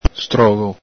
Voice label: "strogo", Veneto